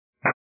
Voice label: 122 725MHz, scanner radio